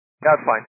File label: 122 725MHz, scanner radio